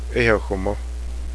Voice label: "feed", cheyenne